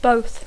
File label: "both", English; adjectiu; tots dos; ambdós; tant l'un com l'altre